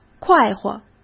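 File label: "快活", Mandarin Chinese; hsk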